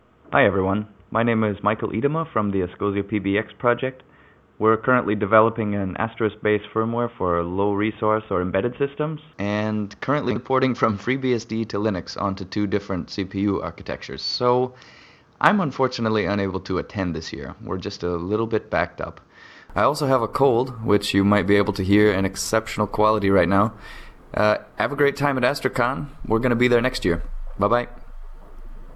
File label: greeting comparative, includes: G.711, G.722 & G.722.1C; ENG